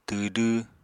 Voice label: "twdw", apatani